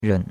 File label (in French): "ren3", chinois; Cours-de-Chinois